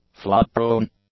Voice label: "flood-prone", english; adjectives